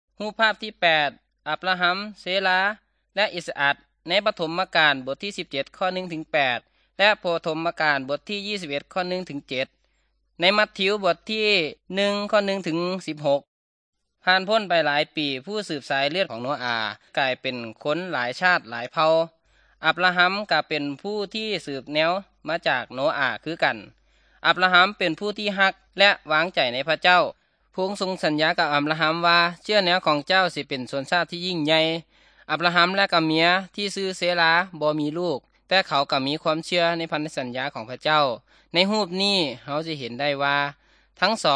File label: good news thai northeastern